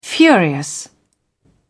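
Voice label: "furious", British English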